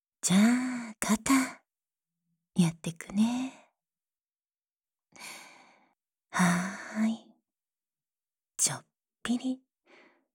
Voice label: 全年齢マッサージ 環境音なし効果音なし

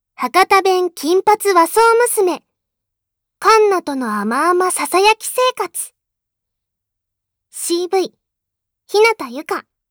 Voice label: タイトルコール, ASMR

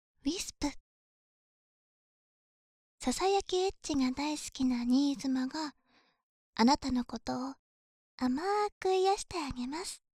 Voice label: タイトルコール

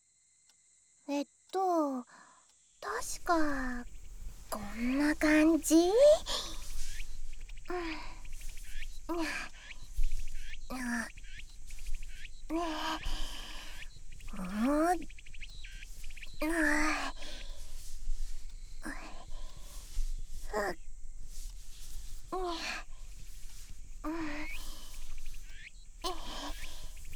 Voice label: マッサージ, ASMR

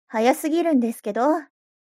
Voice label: mob 女子 台詞

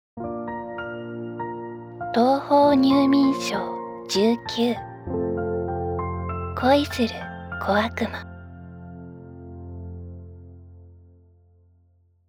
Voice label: タイトルコール

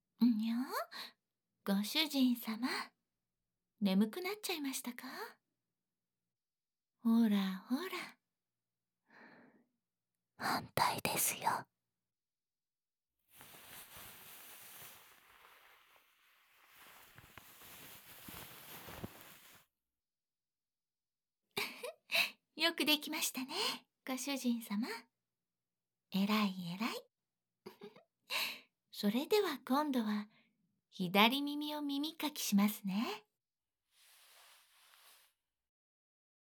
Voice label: 耳かき（左耳）, ASMR; 猫娘クロちゃんの甘やかし耳かき; クロちゃんの耳かき

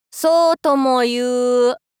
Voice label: そうともゆう～ ショタ 中音域

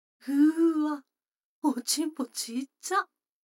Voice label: 女の子台詞

